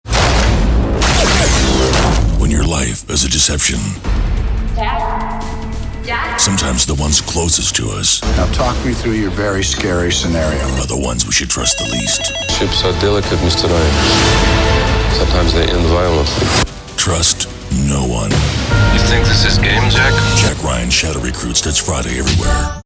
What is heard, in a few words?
Trailer, english american; General public